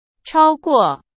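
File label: Chinese, vocabulary; hsk2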